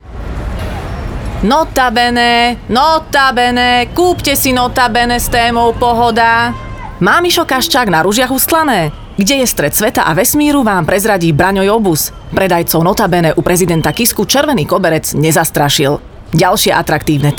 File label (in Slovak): radiospot; NOTA BENE